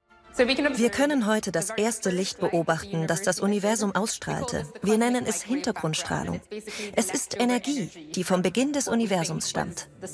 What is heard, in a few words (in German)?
Doku Voice Over; ARTE; Unser Universum